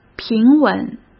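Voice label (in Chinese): "平稳", Mandarin Chinese; hsk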